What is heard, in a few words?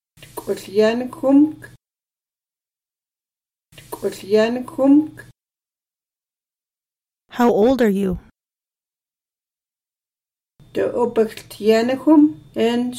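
Numbers how old are you I am, secwepemc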